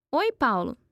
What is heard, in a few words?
conversation